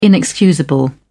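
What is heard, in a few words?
"inexcusable", British English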